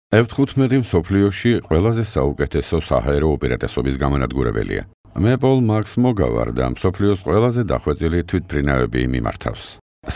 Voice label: Georgian male